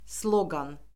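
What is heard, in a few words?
"sloqan", Azerbaijani; Orfoqrafiya Lüğəti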